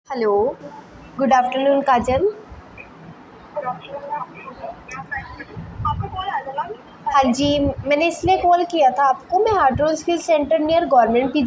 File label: call recording